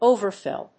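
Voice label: "overfill", English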